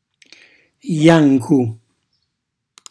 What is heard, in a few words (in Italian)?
"jancu", Calabrese; bianco; cucinacalabrese; glossario